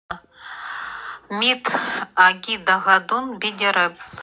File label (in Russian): ЭВЕНКИЙСКИЙ Мы живем рядом с тайгой; Тайга; Мит аги дагадун бидэрэп.